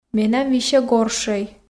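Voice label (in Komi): менам висьӧ горшӧй, Komi, female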